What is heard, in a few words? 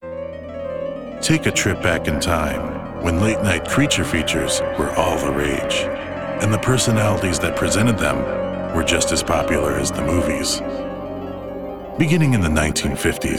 Narration